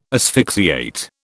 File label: "asphyxiate", British